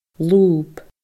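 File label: "lùb", Scottish Gaelic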